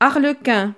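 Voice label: "arlequin", French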